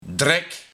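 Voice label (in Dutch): "Drek" Dutch dialect; Dadelijk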